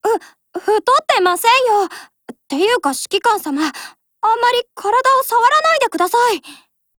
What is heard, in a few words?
DIALOGUE JP